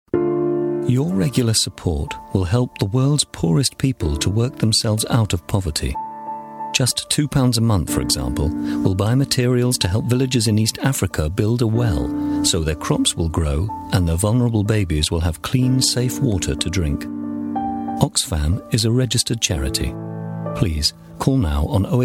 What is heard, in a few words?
advert; oxfam